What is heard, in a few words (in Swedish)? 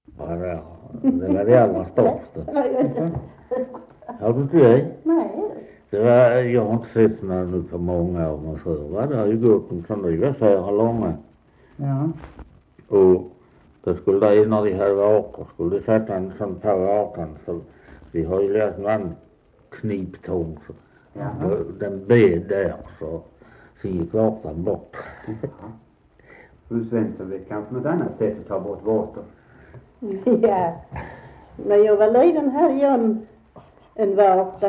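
Västra Torup Skåne, dialekter; Folkminnen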